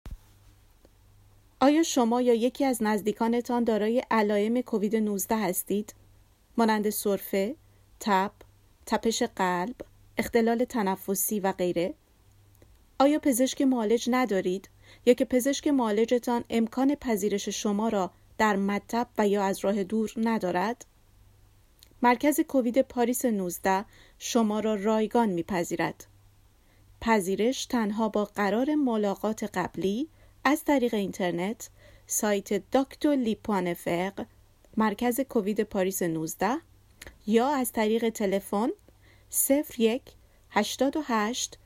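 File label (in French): Persan covid, informations multilingues; Message en Persan; Paris19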